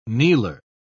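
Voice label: "kneeler", English, British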